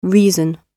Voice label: "reason", English